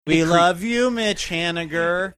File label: singsongy